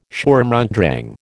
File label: "Sturm und Drang", American